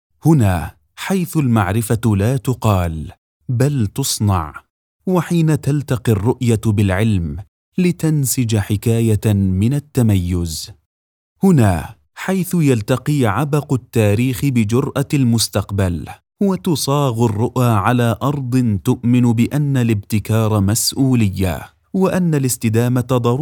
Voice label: Arabic TV shows

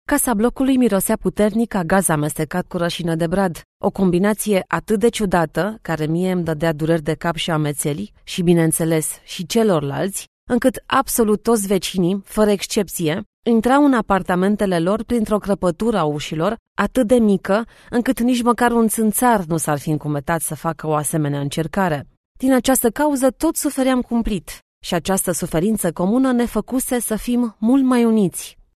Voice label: romanian narration

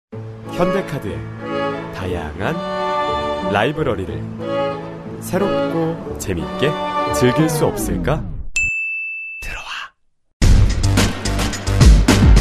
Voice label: korean commercial

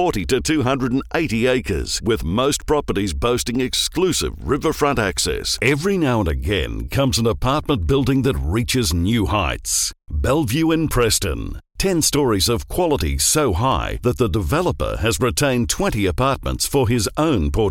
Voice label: english australian real estate

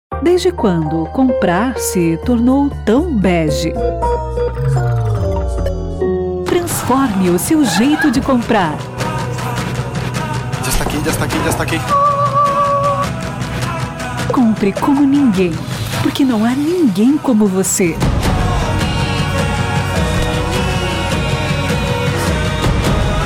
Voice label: portuguese brazilian others

